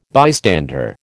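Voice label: "bystander", American English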